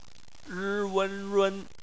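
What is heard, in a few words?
"run" bopomofo, Chinese; phonetics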